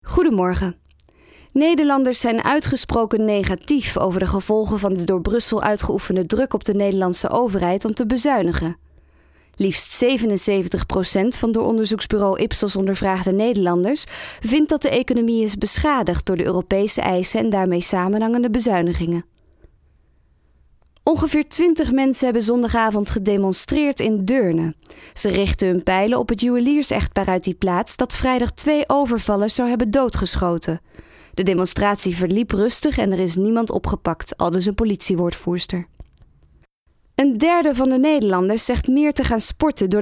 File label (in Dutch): nieuwsdemo; ANP